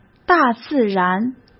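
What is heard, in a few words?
"大自然", Mandarin Chinese; chinese-flashcards; hsk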